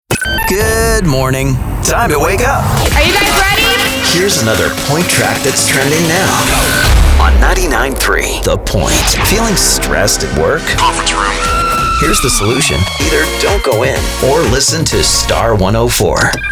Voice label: radio imaging men hot ac

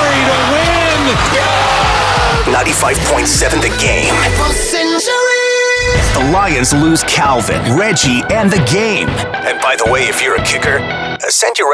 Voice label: radio sports, radio imaging

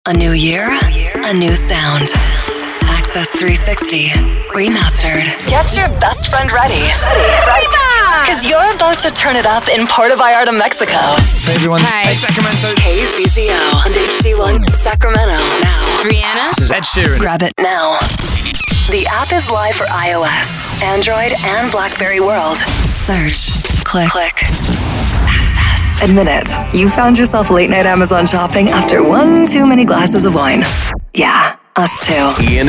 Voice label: radio imaging female chr

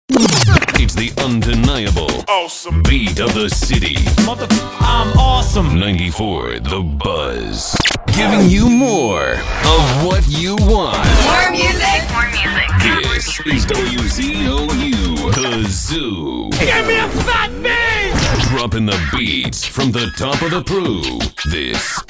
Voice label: radio men chr, radio imaging

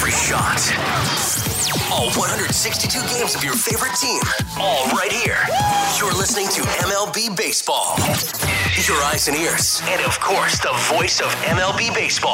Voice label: radio men sports radio, radio imaging

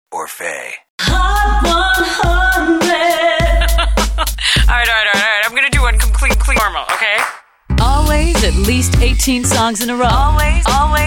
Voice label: radio imaging women

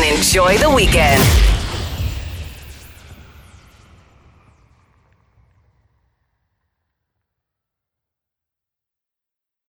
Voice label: radio country; radio imaging

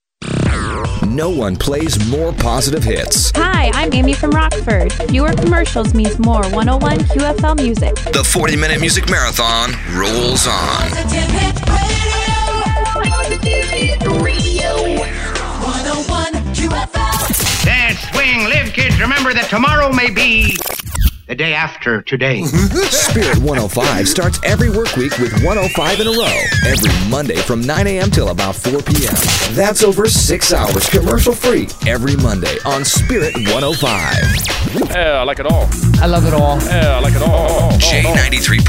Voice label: Contemp Christian, radio imaging

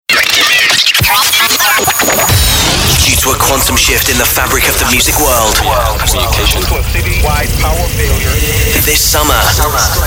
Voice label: radio alt, radio imaging

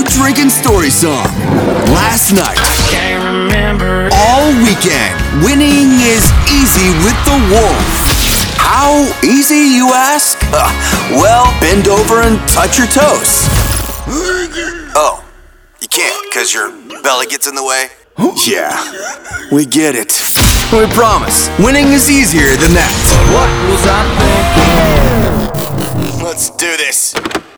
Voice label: radio imaging men country

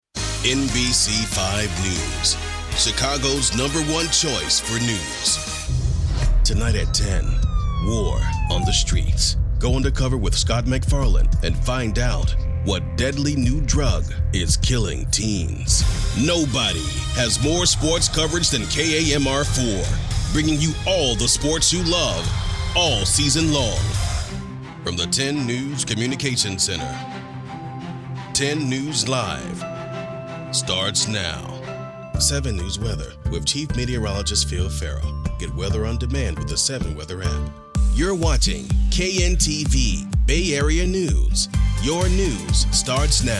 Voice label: tv affiliate men

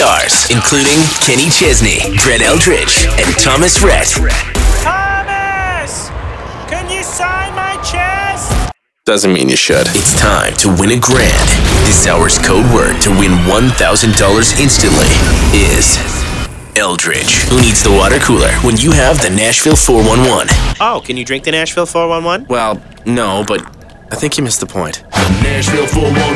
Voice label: radio imaging men country

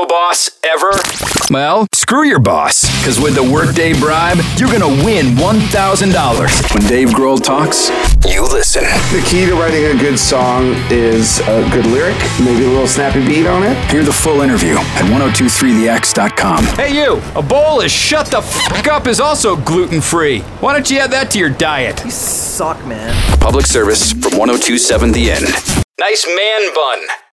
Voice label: radio alt rock; radio imaging

